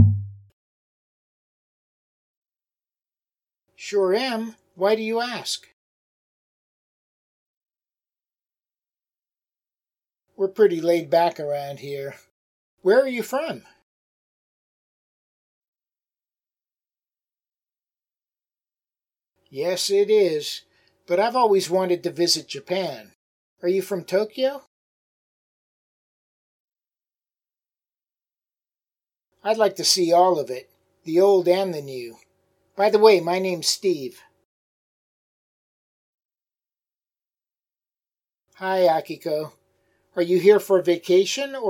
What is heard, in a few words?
Natural Dialog